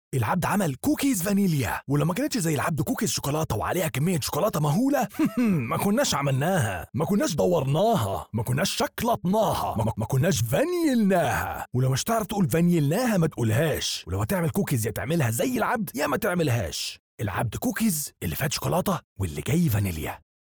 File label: male arabic voice over upbeat